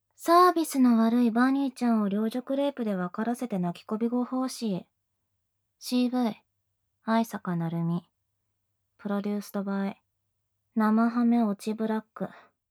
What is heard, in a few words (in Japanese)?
タイトルコール, ASMR